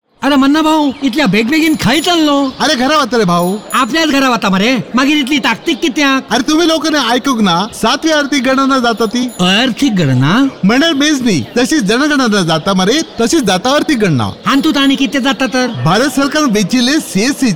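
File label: Konkani Radio Spot; economic census; seventh economic census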